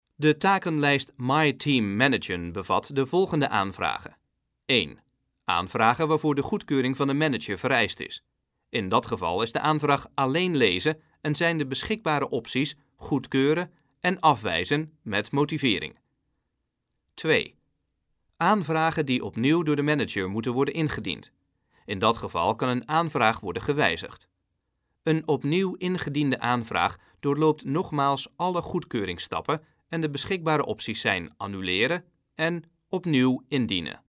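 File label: Dutch, Netherlands, male, voice over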